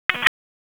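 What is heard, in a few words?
Raleigh Police Southeast District Dispatch, p25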